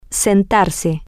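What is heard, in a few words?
to seat herself, Spanish, female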